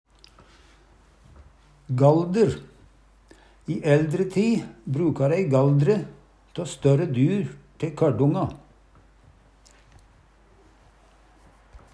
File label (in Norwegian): "galdre", Numedalsmål; I ældre ti'i bruka ræi galdre tå større dyr te kørdonga (kondom).